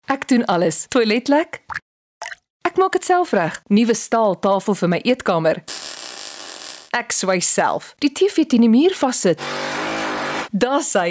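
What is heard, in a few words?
AFRIKAANS, RADIO ADVERTISEMENTS; cosmo shop (afr); VOICE-OVER WORK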